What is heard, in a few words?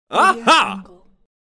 male saying "aha"; medusamusiclab